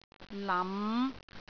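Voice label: "lam5", Cantonese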